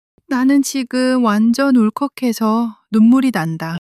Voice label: crying; monologue

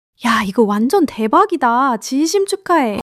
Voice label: joy

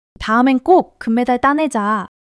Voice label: excitement; monologue

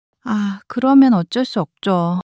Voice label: sadness, monologue

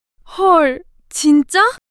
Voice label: surprise, monologue

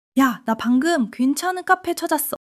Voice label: excitement; monologue

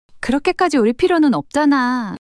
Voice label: shy; monologue

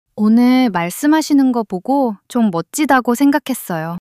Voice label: shy

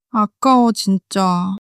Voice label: sadness, monologue

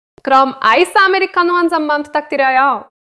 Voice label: neutral, monologue

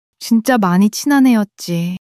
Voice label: sadness

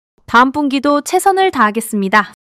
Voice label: excitement

